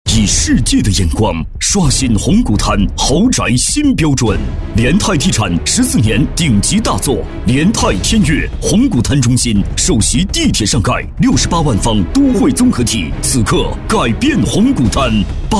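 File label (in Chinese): 国语 男声 广告 大气浑厚磁性; 科技感; 积极向上; 绿城-房产广告-成熟大气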